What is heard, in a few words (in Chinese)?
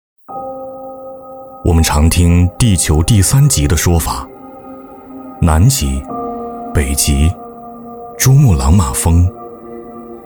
走心旁白 高端大气, 男; 大气主流; 质感磁性; 人生三极